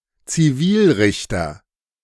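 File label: "Zivilrichter", German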